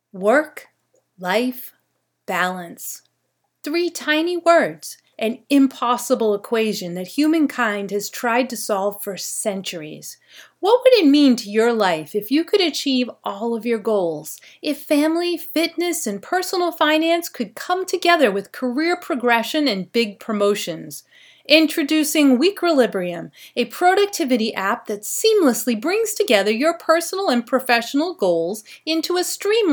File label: App Ad; Voiceover Commercial Mobile App Productivity; Professional voice acting